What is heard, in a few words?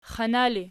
xana erg, archi